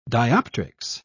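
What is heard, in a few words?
"dioptrics", British English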